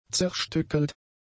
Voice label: "zerstückelt", German; adjectives